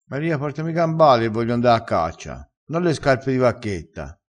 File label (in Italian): "gambali", dialettale crespinese